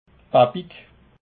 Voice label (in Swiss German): "babig", alsace